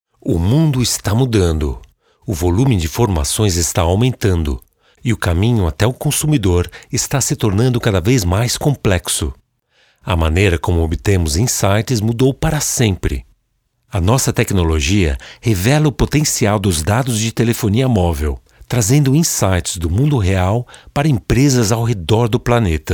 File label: male, Brazilian Portuguese corporate